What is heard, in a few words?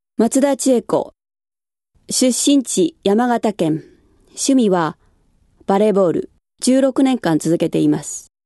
freetalk, female voice